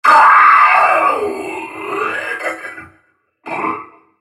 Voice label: dying, en US; Vel'Koz